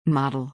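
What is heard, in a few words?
"model", English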